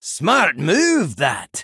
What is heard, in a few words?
Male Dwarf After Triple